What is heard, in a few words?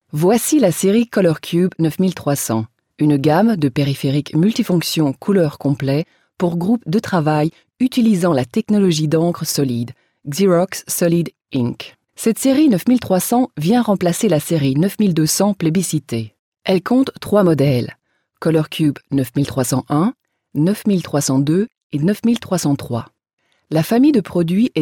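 French, Corporate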